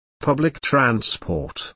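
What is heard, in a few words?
"public transport" British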